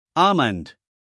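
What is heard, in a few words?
"almond", American English, male; 1000 hours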